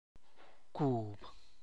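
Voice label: Tlacochahuaya Zapotec; dough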